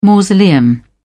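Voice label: "mausoleum", British English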